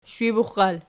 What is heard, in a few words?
"sshibuxal", Archi